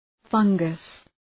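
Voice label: "fungus", English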